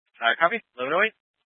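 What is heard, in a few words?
Troop 2A Dispatch, scanner audio recording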